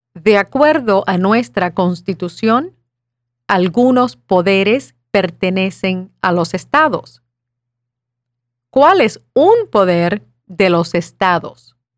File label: Spanish